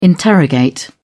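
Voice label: "interrogate", British English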